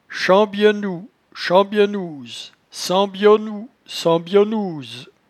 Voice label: "chambyenou", patois jura

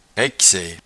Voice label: "raixaie", patois jura

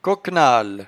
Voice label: "coquenale", patois jura

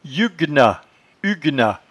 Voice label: "hyuguenat", patois jura

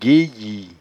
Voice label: "gueyie", patois jura

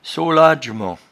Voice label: "soladjement", patois jura

